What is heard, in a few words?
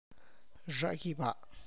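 sky, Tlacochahuaya Zapotec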